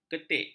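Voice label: "ketik", Malay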